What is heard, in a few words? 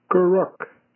Age Kweriiak He Ages He Gets Old, Kumeyaay